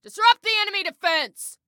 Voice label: Attack Disrupt, Female